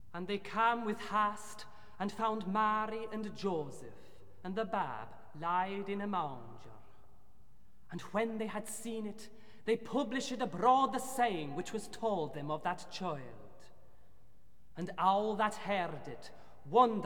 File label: reading; vii. the good news; luke ii, 3-8; Boston Camerata